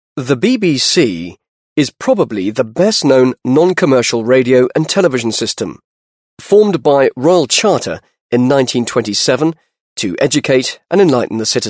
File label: british english male